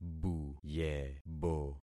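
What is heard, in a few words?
"buyebo", concatenated syllables; Affixing experiment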